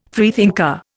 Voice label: "freethinker", Indian

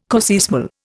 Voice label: "coseismal", Indian

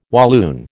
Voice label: "Walloon", American

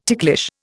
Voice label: "ticklish", Indian

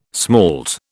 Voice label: "smalt", British

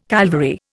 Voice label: "Calvary", Indian

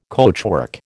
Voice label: "coachwork", American

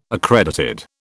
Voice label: "accredited", British

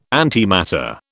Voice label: "antimatter", British

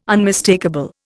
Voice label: "unmistakable", Indian

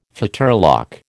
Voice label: "fetterlock", American

